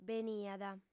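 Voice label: "beniat", lingua sarda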